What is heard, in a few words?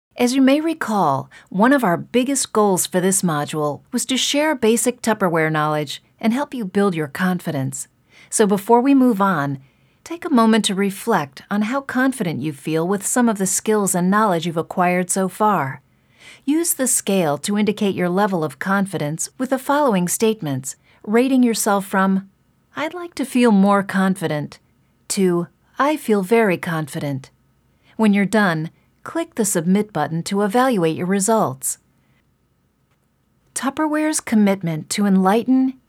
Female Explanation